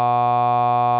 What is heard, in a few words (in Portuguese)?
Sinais de voz com variação de R_g, R_g=0.7